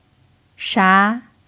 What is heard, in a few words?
"sha2", Chinese